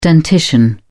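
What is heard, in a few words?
"dentition", British English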